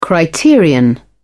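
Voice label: "criterion", British English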